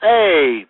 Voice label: "hey", male mad